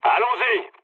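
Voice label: French enemy detected mood low; War Thunder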